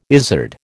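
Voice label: "izard", American English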